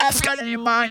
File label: "got in mind", Funky Vocals, girls; USB Soundscan vol.18; AKAI; TB GIRLS